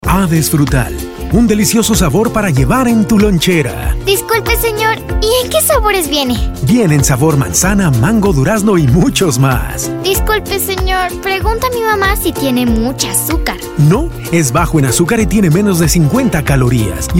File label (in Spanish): Comercial